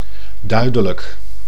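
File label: "duidelijk", Dutch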